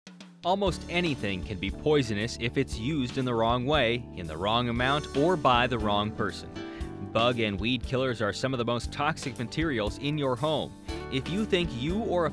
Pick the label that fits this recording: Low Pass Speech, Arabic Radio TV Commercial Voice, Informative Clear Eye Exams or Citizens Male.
Citizens Male